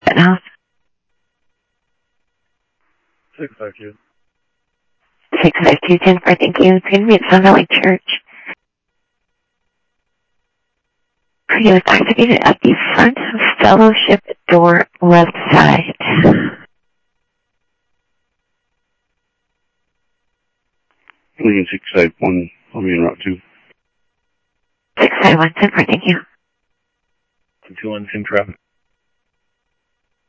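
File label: Sheriff East County, scanner audio trunk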